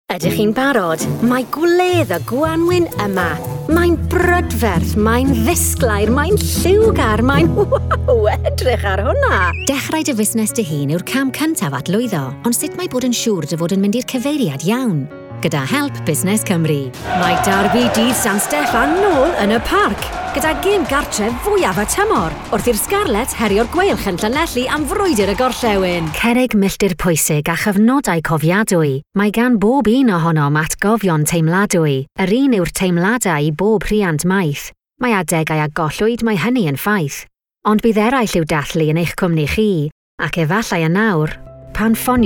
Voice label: Commercials Welsh

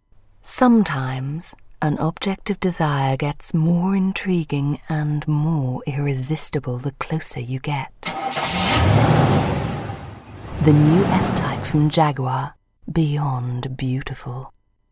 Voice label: Commercial Jaguar Uk version

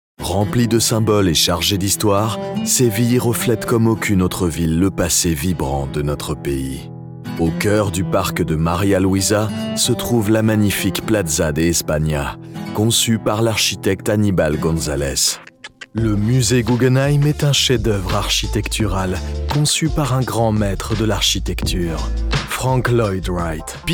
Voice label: French Corporate; Vocal Point

